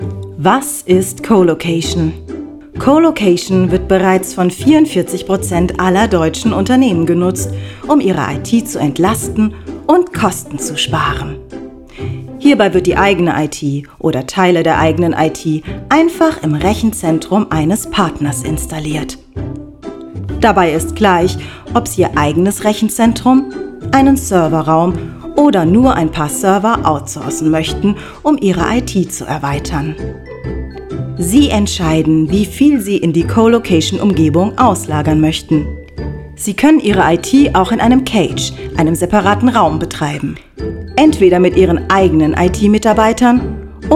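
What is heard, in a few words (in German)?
erklaerfilm, Sprecherin; erklaerfilm colocation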